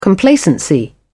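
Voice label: "complacency", British English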